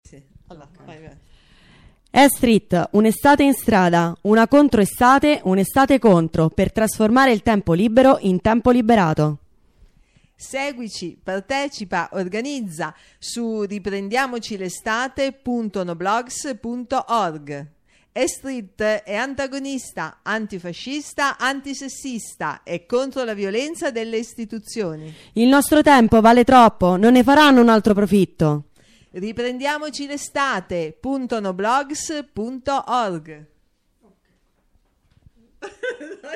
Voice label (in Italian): voci spot; e-street